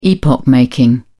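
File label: "epoch making", British English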